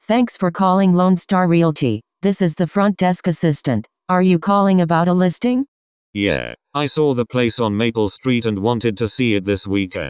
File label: voice ai